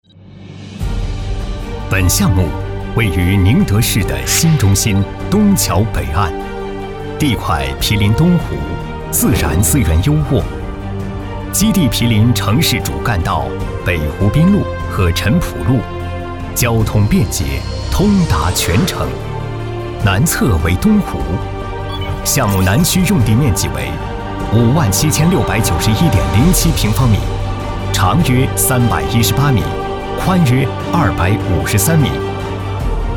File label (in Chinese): 男 工程解说; 东湖一品